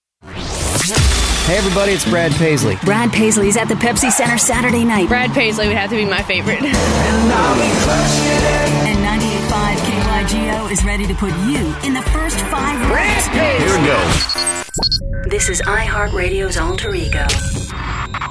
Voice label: radio imaging, commercial voiceover

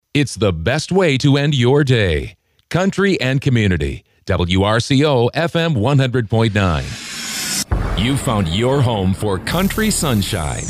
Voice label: Imaging Male, Radio Imaging

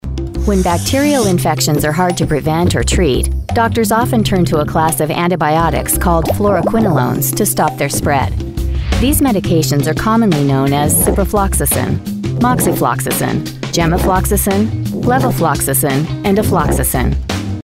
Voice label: Narration Medical, commercial voiceover

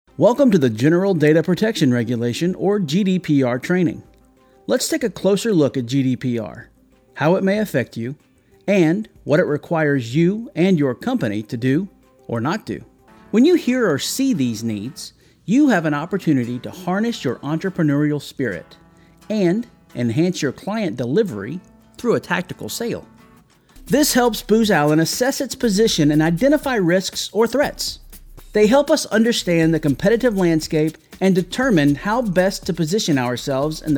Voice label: eLearning Male; Narration, eLearning

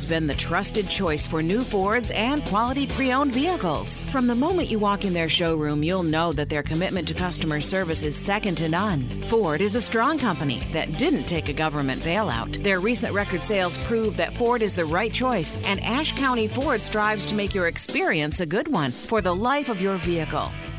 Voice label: Auto Dealer Female, corporate narration

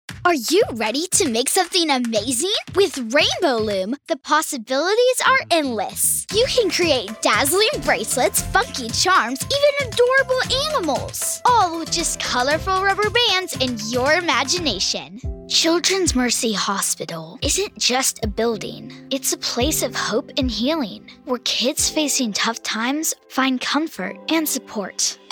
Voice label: Commercial, commercial voiceover

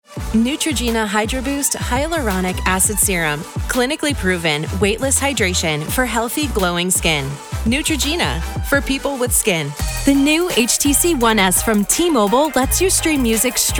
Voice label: Commercial Female

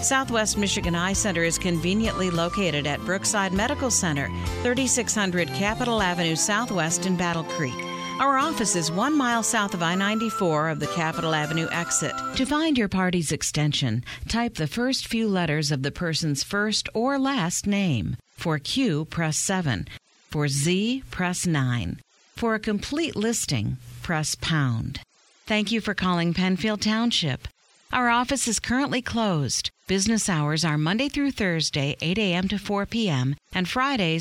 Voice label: Phone Hold IVR Female; Narration, Phone Hold/IVR

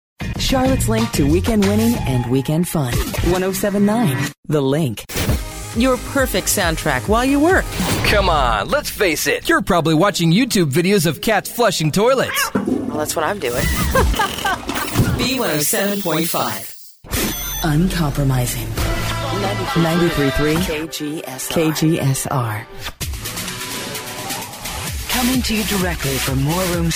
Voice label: Imaging Female

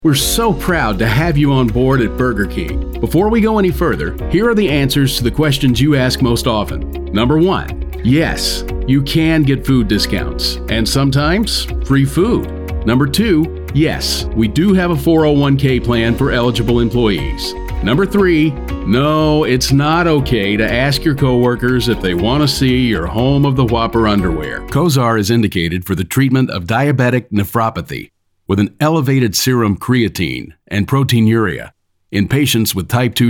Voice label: E-learning

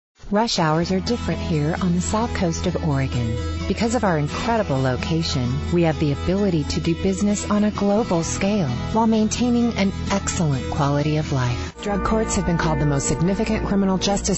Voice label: Narration Female; Narration, eLearning, Corporate, Documentary, Medical Narration

